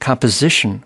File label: "composition", us